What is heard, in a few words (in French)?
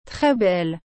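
"tres belle", French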